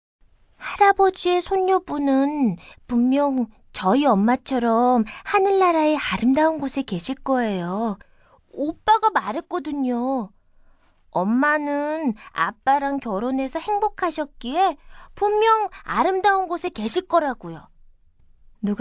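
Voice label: Korean female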